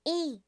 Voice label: "E", alphabet